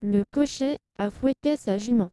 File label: female Trans F1 to F2; LPC